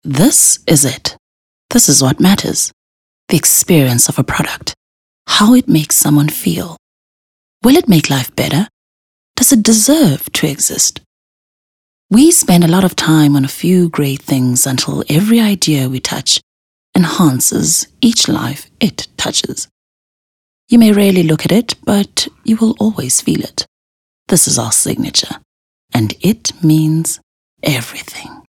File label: English Soft Sell